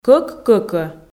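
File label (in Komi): кӧк кӧкӧ, Komi, female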